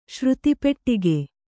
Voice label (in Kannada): "ಶ್ರುತಿಪೆಟ್ಟಿಗೆ", Kannada